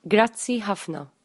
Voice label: thanks, maltese